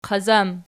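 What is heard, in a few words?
"qazam" nominative, Archi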